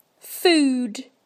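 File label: "food", British English; PictureDictionary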